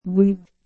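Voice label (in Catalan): "vuit", Catalan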